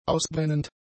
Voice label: "ausbrennend", German; adjectives

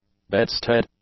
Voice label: "bedstead", English; nouns

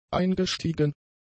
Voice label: "eingestiegen", German; adjectives

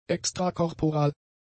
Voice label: "extrakorporal", German; adjectives

